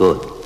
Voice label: "good", Voice; expletives

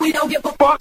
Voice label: "we don't give a fuck", male; Voice

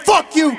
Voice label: "fuck you", male; Voice; expletives

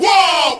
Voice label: "woah", Voice; ooh ahh

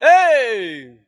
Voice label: "ay", voice

